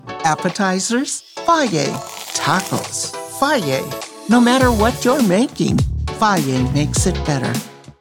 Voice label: commercial; Vibrant Voices; fage